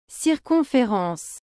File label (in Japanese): "circonférence", フランス語; 女性名詞の単語